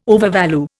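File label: "overvalue", Indian English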